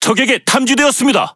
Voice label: Speech Alarms Sub Detected, KO; World Of Warships

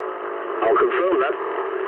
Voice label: eng player killed enemy mood low, speech eng

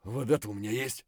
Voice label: dehydrated; Escape from Tarkov

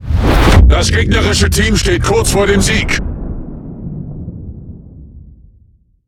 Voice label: Speech Domination Enemy Close Win, DE; World Of Warships

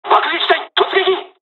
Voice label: japanese first wave; War Thunder

